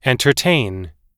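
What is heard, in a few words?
"entertain", English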